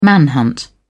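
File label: "manhunt", British English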